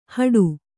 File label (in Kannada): "ಹಾಡಿ", Kannada